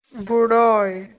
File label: "buroj", Proto Germanic